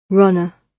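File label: "runner", British English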